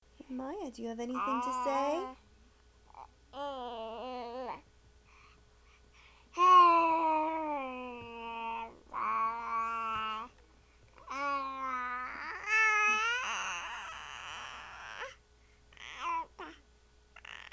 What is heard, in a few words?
babytalk